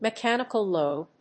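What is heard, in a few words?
"MECHANICAL LOAD", English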